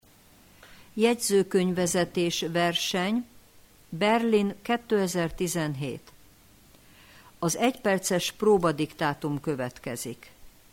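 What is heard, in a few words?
warm up Hungarian, dictation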